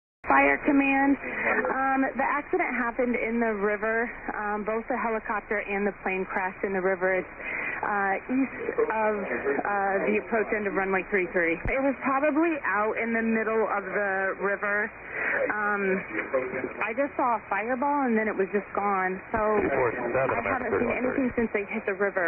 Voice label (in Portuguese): torre de comando apos acidente aviao e helicoptero; reacoes acidente entre aviao e helicoptero